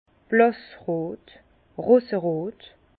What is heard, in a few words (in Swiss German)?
"blassrot", Bas Rhin; vin; rose